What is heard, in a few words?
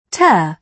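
"tear", British English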